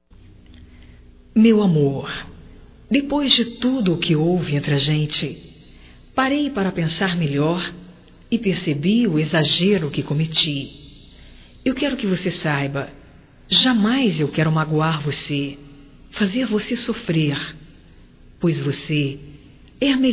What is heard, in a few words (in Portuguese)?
DESCULPAS ROMâNTICA, Românticas fem